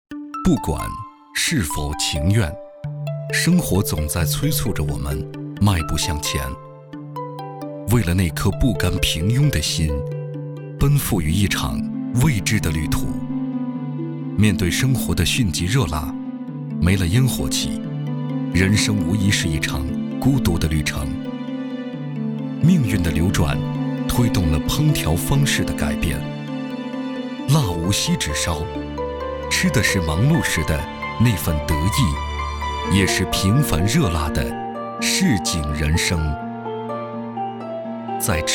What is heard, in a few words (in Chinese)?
美食舌尖风格